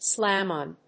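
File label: "slam on", English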